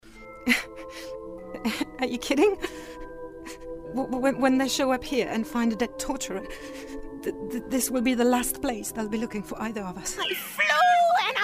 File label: Animation, English with singing